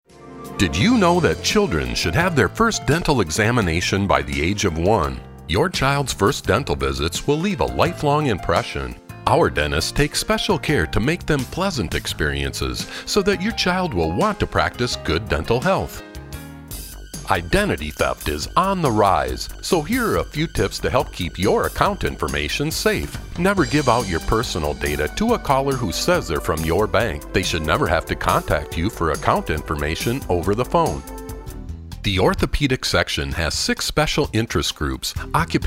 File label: Narration VO